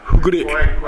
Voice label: "fuggure", minamiyamagata

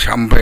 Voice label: "yanbae", minamiyamagata

